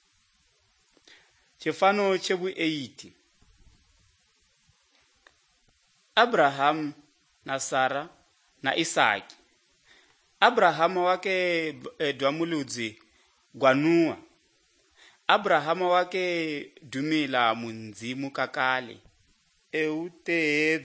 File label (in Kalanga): good news kalanga lilima, drama